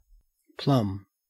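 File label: "plumb", Australian English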